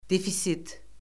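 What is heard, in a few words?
"defisit", Azerbaijani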